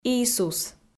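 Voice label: "Иисус", Komi, female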